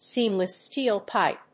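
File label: "seamless steel pipe", English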